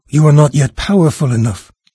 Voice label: low power, NARRATOR, en-US; Fate The Traitor Soul